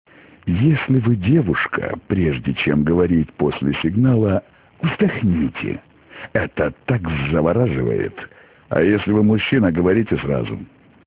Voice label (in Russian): Avtootvet